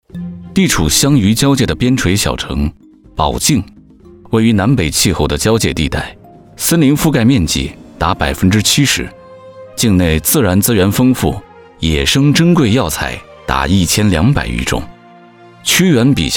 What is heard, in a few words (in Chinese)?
男国 纪录片 舌尖; 宝庆